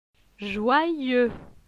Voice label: "joyeux", francais

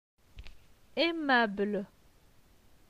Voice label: "Aimable", phonetique; les consonnes

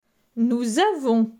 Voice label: "nous avons", francais

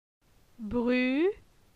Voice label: "Bru", phonetique; la syllabe u

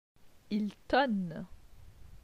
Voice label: "il tonne", francais; vocabulaire; le lexique du temps

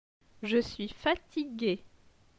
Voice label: "je suis fatigue", francais

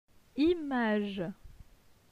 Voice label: "image", francais; phonetique; les consonnes

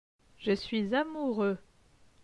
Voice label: "je suis amoureux", francais

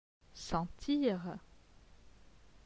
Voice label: "sentir", francais

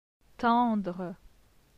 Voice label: "tendre", francais

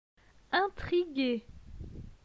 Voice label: "intrigue", francais

